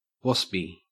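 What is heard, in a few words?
"waspy", Australian English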